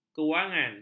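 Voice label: "kewangan", Malay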